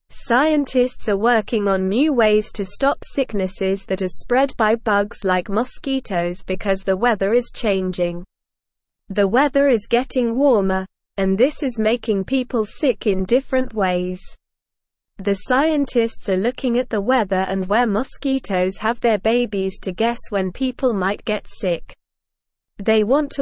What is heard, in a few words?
English Newsroom Lower Intermediate SLOW Reading